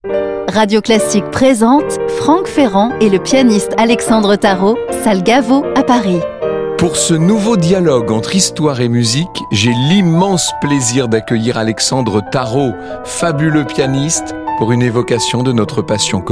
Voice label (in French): Spot concert; Gaveau